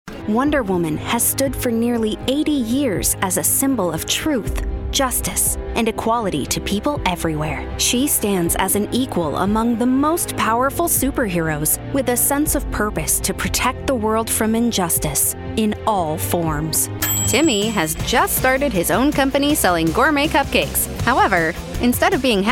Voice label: Corporate Narration